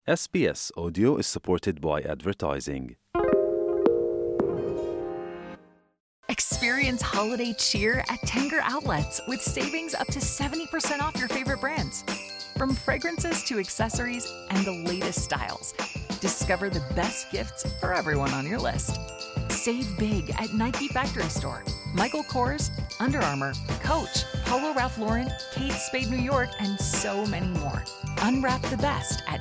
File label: gujarati news bulletin; SBS Gujarati